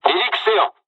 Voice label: fly, dialogs japanese; War Thunder

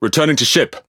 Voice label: Speech Pilots Bomber Land, EN GB; World Of Warships

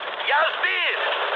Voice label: aircraft destroyed, mood med, speech ru

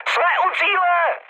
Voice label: near waypoint, speech cze, mood high; War Thunder

